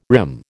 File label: "rim", American